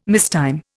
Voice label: "mistime", Indian

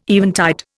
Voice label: "eventide", Indian

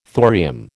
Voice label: "thorium", American

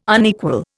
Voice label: "unequal", Indian

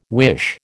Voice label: "wish", American

